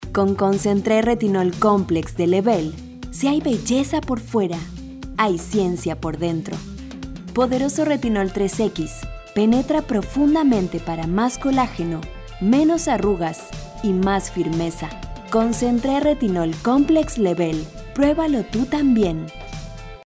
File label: locutora espanol neutro american spanish voiceover; locutora latino americana